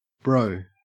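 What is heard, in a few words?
"bro", Australian English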